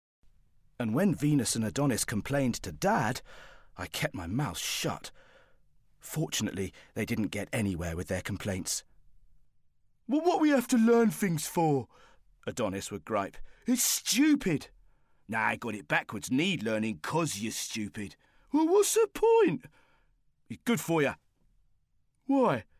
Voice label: Narrative

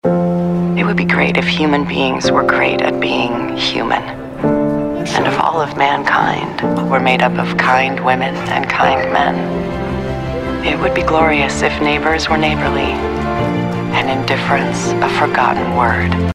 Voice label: spot; Marriott; SMVoices

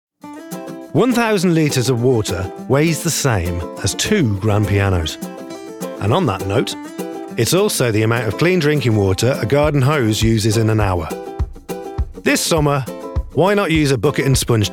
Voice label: brummie; severntrent

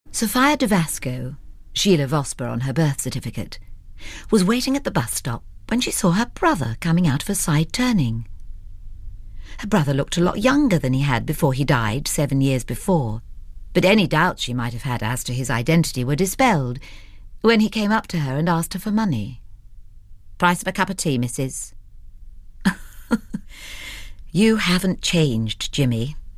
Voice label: Narrative Compilation

